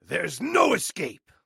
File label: male hate alert